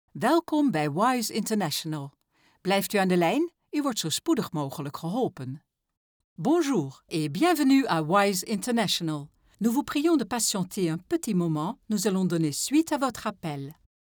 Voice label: IVR; Wise